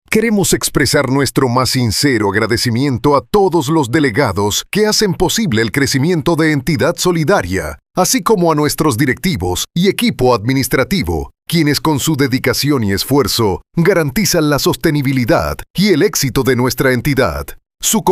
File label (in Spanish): masculino